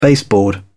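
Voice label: "baseboard", British English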